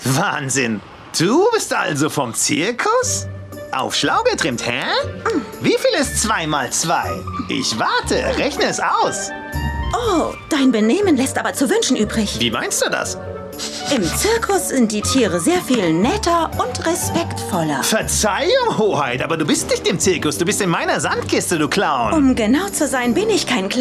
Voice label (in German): Trickfilm Synchronisation, German